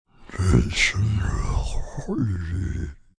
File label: Whisper Monster, Whispering, processed with effects, growling (human voice); Sound Effects:Voice; VoiceBox